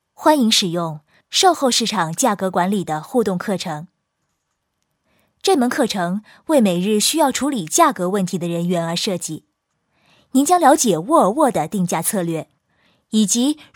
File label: cn elearning